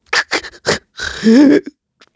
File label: Vox Sad; heroes; KaselB; kings raid